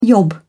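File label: "yob", British English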